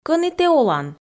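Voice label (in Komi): Komi, кӧні тэ олан, female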